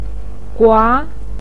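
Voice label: "gua", Mandarin Chinese, second tone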